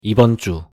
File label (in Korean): "이번 주", Korean